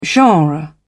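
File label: "genre", British English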